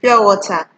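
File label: "pure water", Nigerian Pidgin, female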